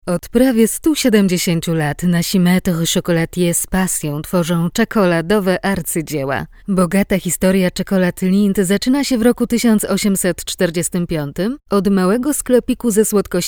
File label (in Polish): narracja; Lindt